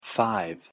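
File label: "five", English pronunciation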